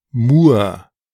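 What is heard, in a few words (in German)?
Mur, German; [ˈmuːɐ̯]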